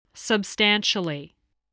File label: "substantially", spelling